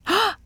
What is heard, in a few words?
Emote Female Shocked; Emotes